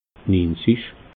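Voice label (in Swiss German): "niinzig", Alsatian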